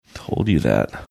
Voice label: quietly saying told you that